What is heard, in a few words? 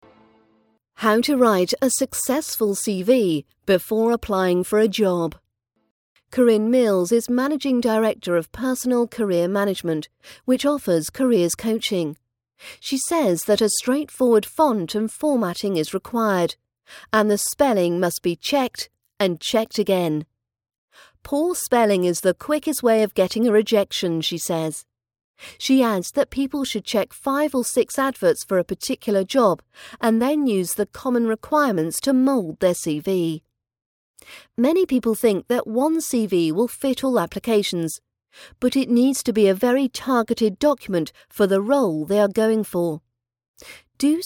rp british accent training; how to write a CV news article